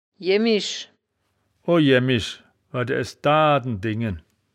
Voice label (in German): "jemmisch", wittlich